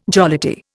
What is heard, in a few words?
"jollity", Indian